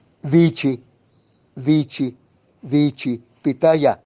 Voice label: "pitaya", Mixtec; vocabulario palabras en mixteco